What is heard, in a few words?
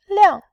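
"liang4", Mandarin Chinese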